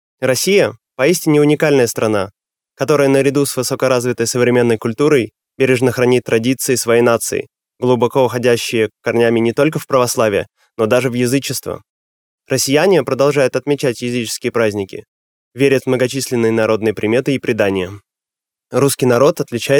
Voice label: Male Russian

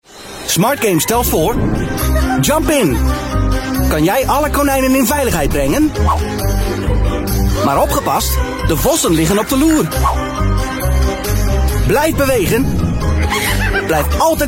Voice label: NL male TVC

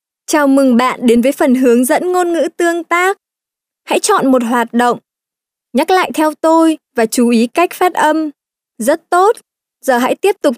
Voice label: Female Vietnamese

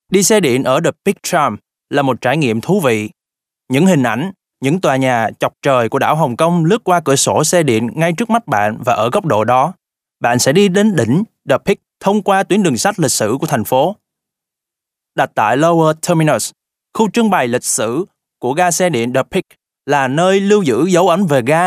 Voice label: Male Vietnamese Other Projects

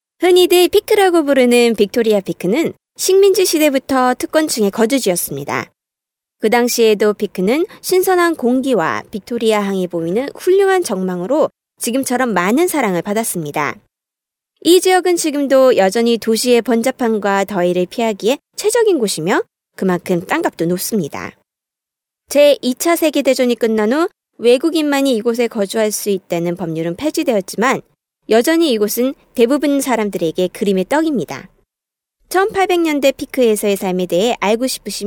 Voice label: Female Korean Other Projects

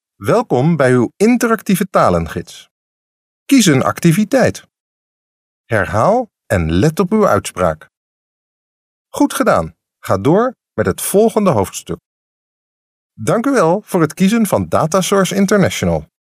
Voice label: NL male corporate

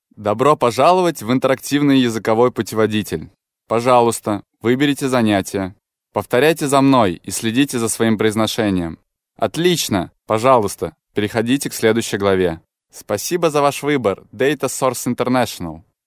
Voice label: Male Russian Other Projects

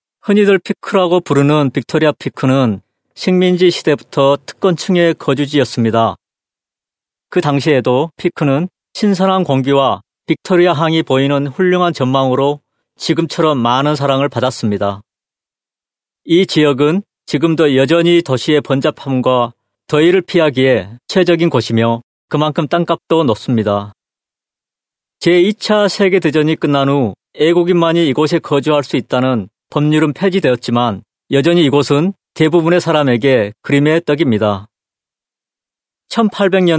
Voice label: Male Korean Other Projects

